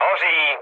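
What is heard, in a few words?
on fire, Czech, mood low; speech; War Thunder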